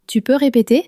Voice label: "tu peux repeter", common french words